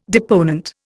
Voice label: "deponent", Indian